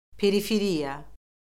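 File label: "periferiya", Azerbaijani; Orfoqrafiya Lüğəti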